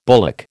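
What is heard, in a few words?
"bullock", American English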